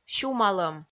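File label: "сюмалӧм", Komi, female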